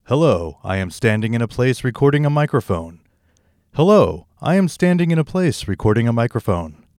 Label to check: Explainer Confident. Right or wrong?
Wrong